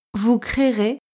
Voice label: "vous créerez", French